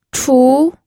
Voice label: "chu2", pinyin